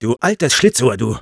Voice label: positive vocalization, de; Du altes Schlitzohr, du!; Sniper

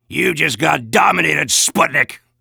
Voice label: Soldier Domination Heavy

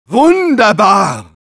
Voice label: Medic positive vocalization, de

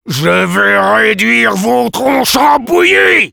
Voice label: sniper taunts, fr

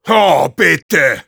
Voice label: negative vocalization, de; "Oh, bitte..."

